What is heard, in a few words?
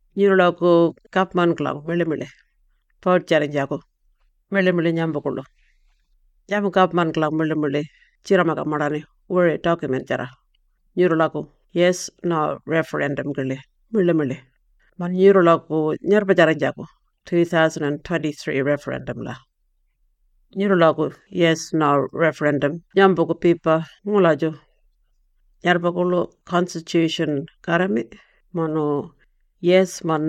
Warlpiri; Referendum Booklet